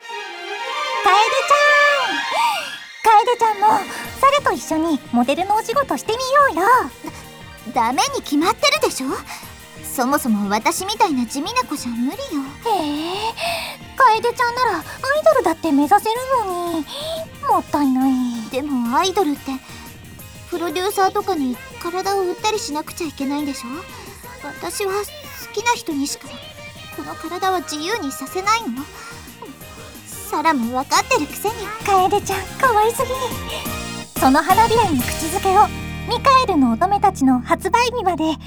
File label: Voice Drama